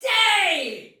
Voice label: Attack Normal Soldier Female, Battle Barks

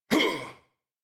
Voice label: Abil Jump, en; Warframe; New War; Teshin

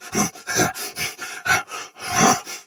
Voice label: soldier sword agent male; Sinecure

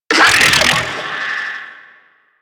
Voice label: Ranged Pain, Barks, Battle; Warframe

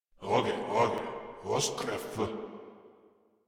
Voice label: Patrol Grineer Male, Barks, Battle

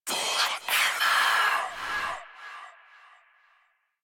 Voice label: Whisper; Protea Quest; Protea Whispers; Protea Specter

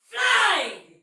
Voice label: Shoot Arrow Soldier Female, Battle; Dialog; Sinecure

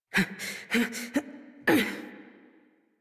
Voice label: Horse Mount Adult; Warframe; Duviri

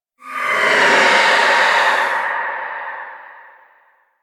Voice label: Rell Specter Vocal Death; Enemies; Warframe; Priest Quest

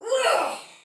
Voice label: Attack Soldier Female, Battle Barks; Sinecure

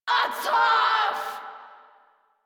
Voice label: Attack Grineer Female; Warframe

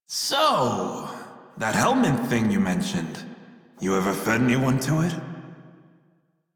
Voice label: Crew Idle Male en; Dialog; Warframe; Railjack Crew; Red Veil

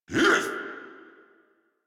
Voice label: angry, en; Warframe; Tyl Regor Boss; Efforts

